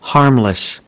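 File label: "harmless", English; UNIT 5: HEALTHY LIVING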